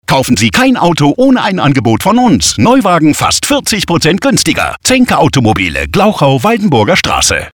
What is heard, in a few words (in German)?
radiospot